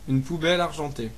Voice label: trash can desc fr; household; stamps